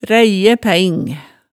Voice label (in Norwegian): "ræiæ pæing", Hemsedal